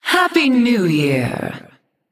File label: Female Night Elf HAPPY NEW YEAR